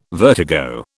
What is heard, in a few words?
"vertigo", British